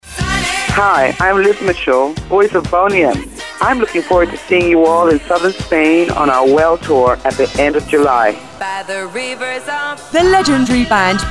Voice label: Ingles; Fuengirola